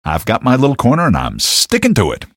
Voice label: male

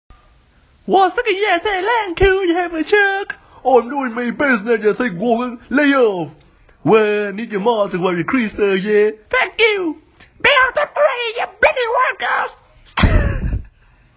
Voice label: dem accents

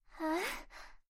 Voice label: huh cute anime voice

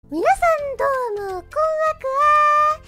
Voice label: mimicking; natsuiro matsuri

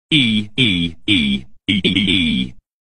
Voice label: e e eeeee ea sports

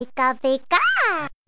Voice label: "pika pikaaa"

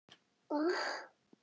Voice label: confused cute sound voice over

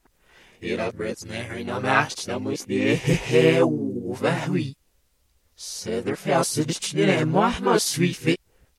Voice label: backwards message

